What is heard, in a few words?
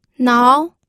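"nao", second tone, pinyin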